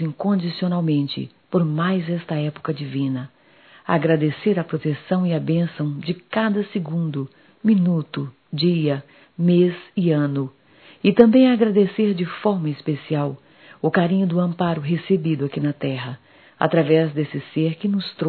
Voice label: Ano novo para pais, fem; Natal e ano novo; Telemensagens 2017

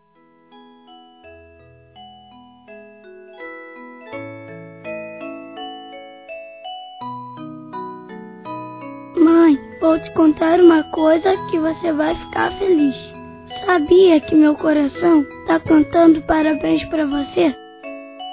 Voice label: Aniversário Mãe Infantil Masculino; Telemensagens 2017; Aniversários

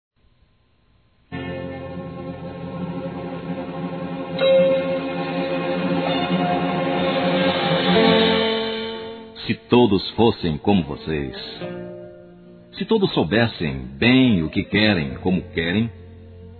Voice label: Aniversário Casamento Geral Masculino, Telemensagens; Telemensagens 2017; Aniversários

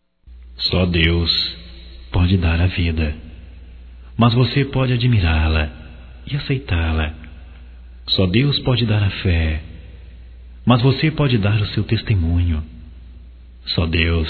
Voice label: Masculino; Só Deus Pode Fazer o Impossivel Masculino

